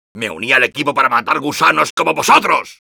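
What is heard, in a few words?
taunts, Spanish; Soldier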